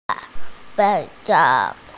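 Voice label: "arm", Mandarin Chinese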